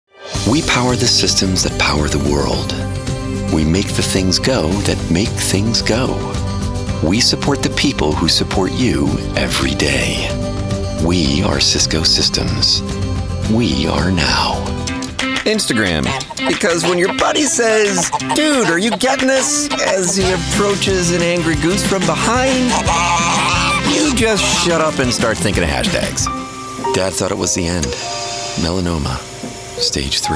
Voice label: us commercial